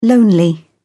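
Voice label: "lonely", British English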